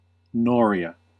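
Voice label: "noria", US English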